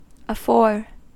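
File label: "afore", American English; pronunciations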